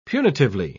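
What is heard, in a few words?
"punitively", British English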